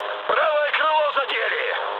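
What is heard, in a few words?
right wing, mood low, Russian; War Thunder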